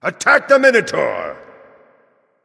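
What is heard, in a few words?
vox attack, male; smite; thor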